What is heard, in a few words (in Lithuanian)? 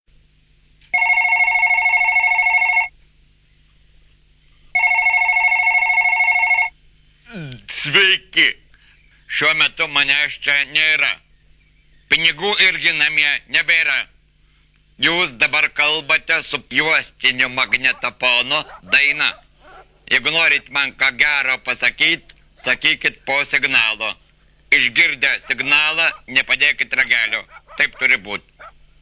autoatsakiklis